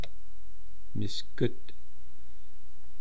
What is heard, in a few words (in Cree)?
"miskut", eastcree